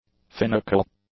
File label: "finical", English; adjectives